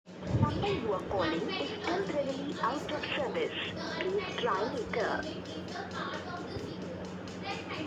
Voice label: call recording